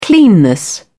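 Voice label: "cleanness", English, uk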